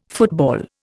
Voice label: "football", Indian